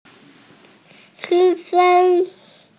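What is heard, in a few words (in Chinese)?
"qu1fen1", Mandarin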